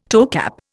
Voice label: "toecap", Indian